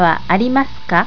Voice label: "waarimasuka", japanese